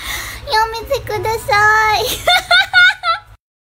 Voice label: nada dering yamete kudasai hahaha

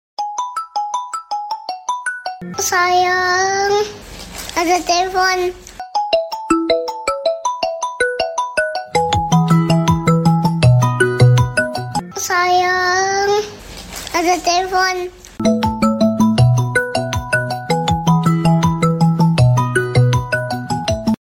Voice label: nada dering panggilan ada telepon versi Samsung; abe sayang ada telepon

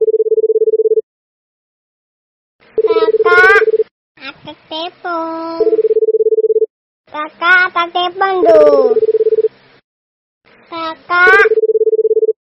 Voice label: nada dering lucu kakak ada telpon, Nada dering ini berisi suara bayi yang mengatak; Kakak ada telepon, Kakak ada telepon tuh

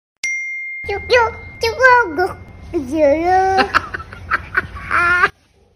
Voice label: nada dering kiw kiw cukurukuk anak kecil; Nada notifikasi Kiw Kiw Cukurukuk Anak Kecil

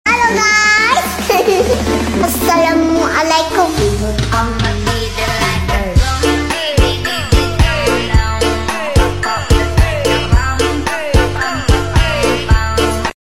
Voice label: nada dering assalamualaikum; tiktok

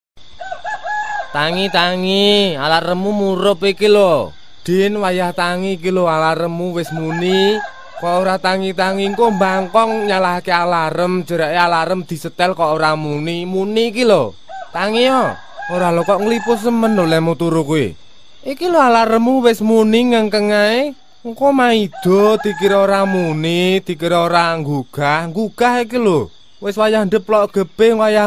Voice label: nada dering alaram telpon sms bahasa jawa

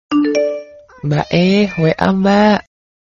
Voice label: nada notifikasi mbak e, wa mbak versi jawa

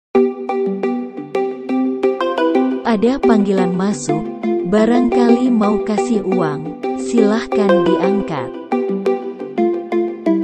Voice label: nada dering panggilan lucu dari mbak google

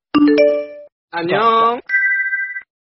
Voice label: nada notifikasi WA Annyeong Korea

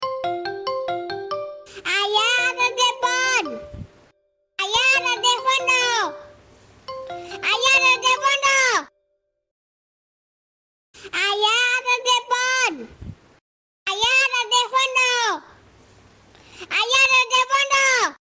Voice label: nada dering anak kecil memanggil ayah; nada notif/ nada dering suara anak kecil memanggil Ayah 'Ayah ada telepon, lho

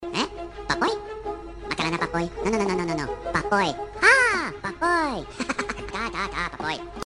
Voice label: nada dering minion papoy; ringtone Minion Papoy

